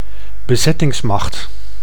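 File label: "bezettingsmacht", Dutch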